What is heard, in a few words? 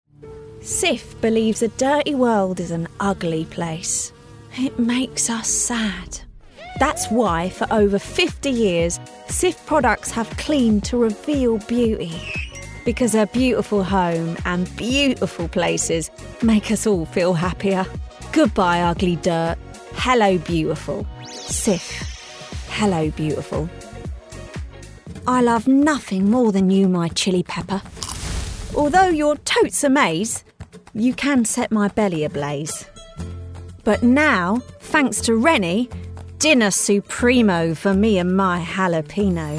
Essex